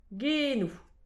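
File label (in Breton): "genou", Breton; petit precis de prononciation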